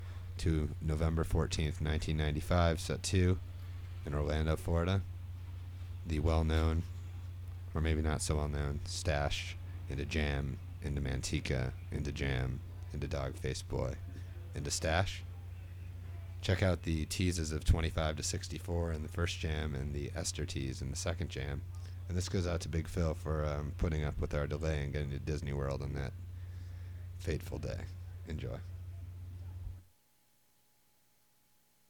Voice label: radio banter; Lemonwheel

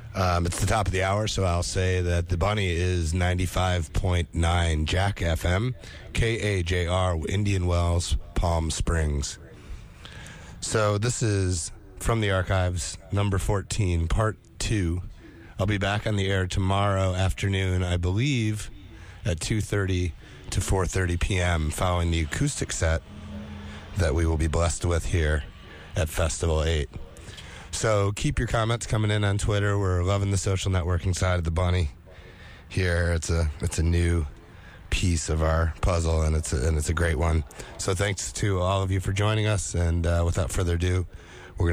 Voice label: radio banter; Festival 8

